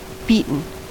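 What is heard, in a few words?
"beaten", US English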